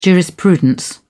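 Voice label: "jurisprudence", British English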